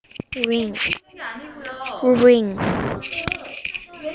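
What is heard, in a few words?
"ring", spoken word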